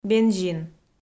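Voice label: "бензин", Komi-Zyrian, female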